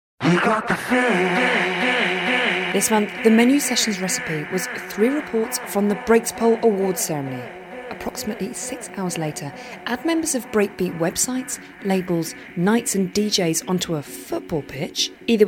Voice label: Real Sarcastic Radio Music Reporting

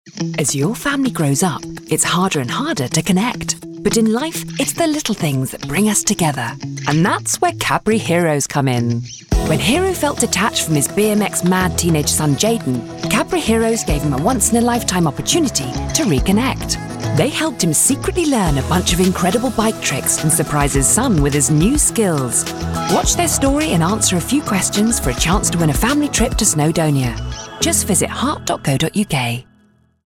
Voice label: Engaging Genuine; Cadbury Heroes

